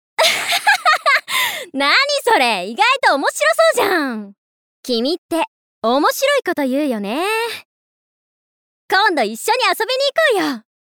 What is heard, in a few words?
Girl Bright, 明るい女の子